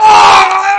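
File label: male voice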